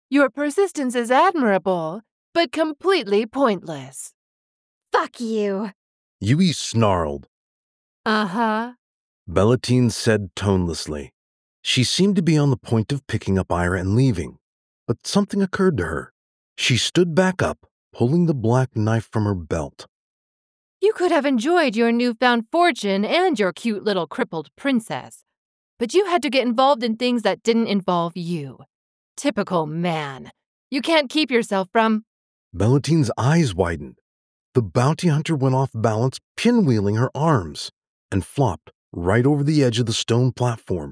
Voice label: Dual Narration